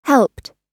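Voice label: "helped", American English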